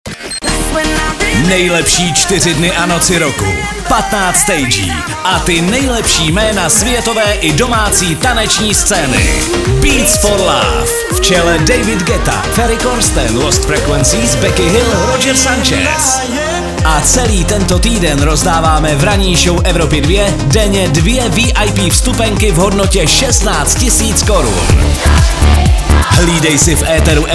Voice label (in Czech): promo soutez; evropa2